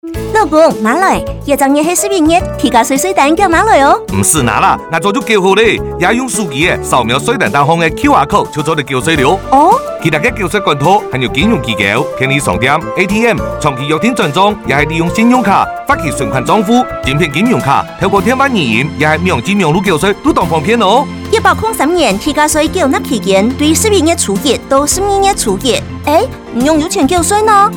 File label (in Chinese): 地價稅宣導30秒廣播廣告-客語; 地價稅開徵宣導短片客語